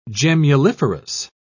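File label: "gemmuliferous", British English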